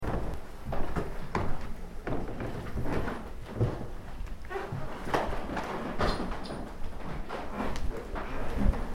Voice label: possible whisper; brumder mansion

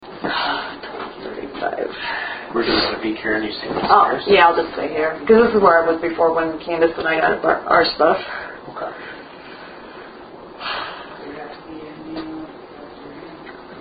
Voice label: furniture room womans voice toward end

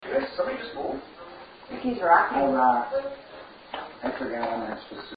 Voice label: furniture room voice near cubby again; paranormal; elsings 2nd hand shop

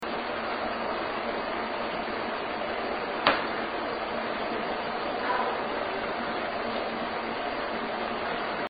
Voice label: dining room small voice; willow creek

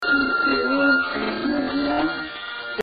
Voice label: furniture room old woman; paranormal; elsings 2nd hand shop

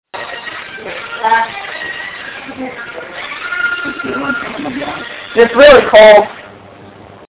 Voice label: talking female band music; paranormal; elsings 2nd hand shop

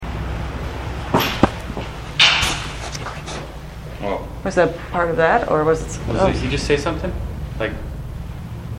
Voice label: office area voice; paradise theatre

